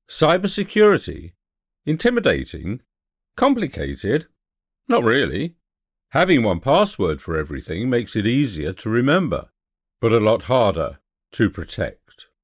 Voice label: Cyber Security Protection Advert